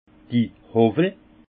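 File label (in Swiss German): "howwel", Haut Rhin; vin; rabot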